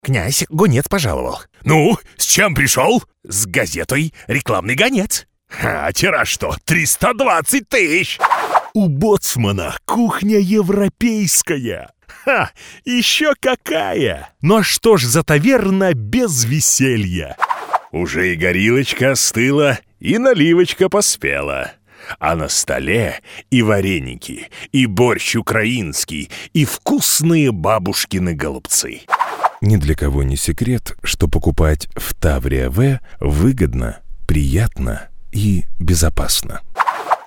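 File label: Russian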